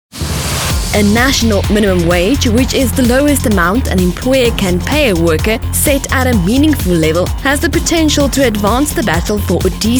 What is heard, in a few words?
Voice Over